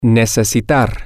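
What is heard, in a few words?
to need, Spanish, male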